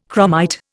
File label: "chromite", Indian